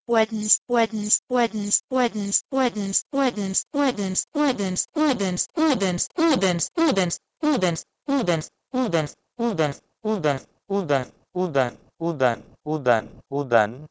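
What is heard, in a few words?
Proto-Indo-European "wedns" to Sanskrit "udan"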